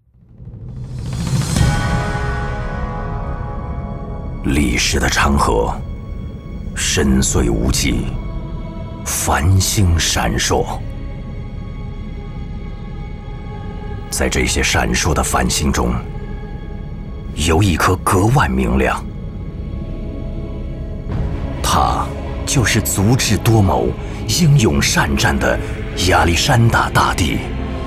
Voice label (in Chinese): 男 广告; 国语男声配音